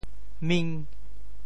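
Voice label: "ming5", Teochew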